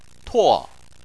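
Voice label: "tuo", Chinese, fourth tone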